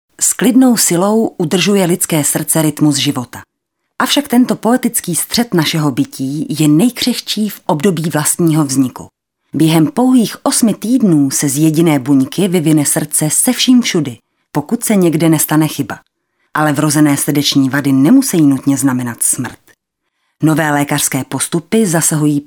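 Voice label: Female Czech documentary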